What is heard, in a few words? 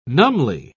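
"numbly", British English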